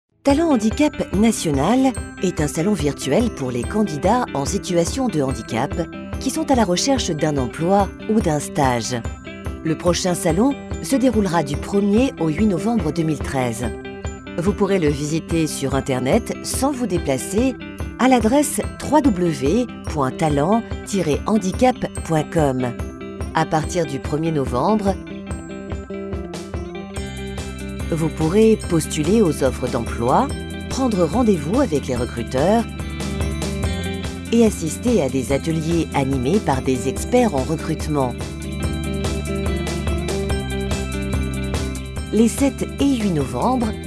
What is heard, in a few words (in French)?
commentaires